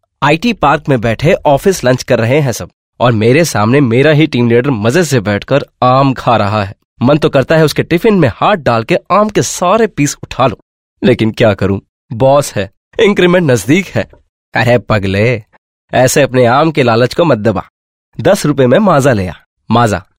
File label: Hindi, male voice